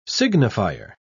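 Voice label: "signifier", English, British English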